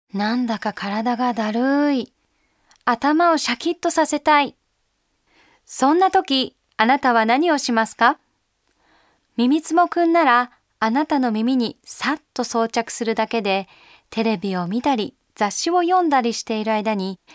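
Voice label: Voice Sample Narration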